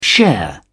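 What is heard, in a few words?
"share", British English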